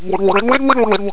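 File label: Sub pissed, Ships; Human Sounds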